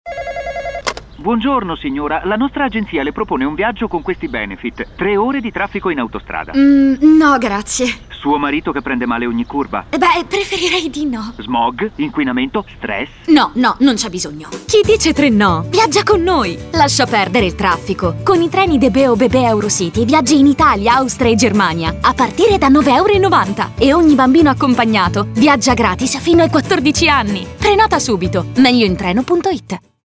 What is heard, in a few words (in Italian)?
campagna pubblicitaria, spotify; db bahn; magnumadv